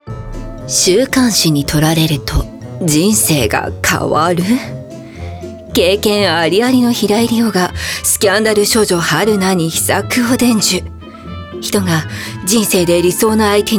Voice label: narration